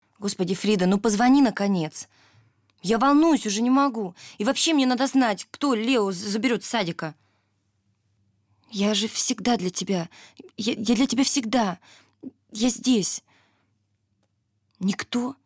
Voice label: rus